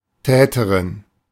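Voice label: "Täterin", German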